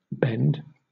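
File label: "bend", British English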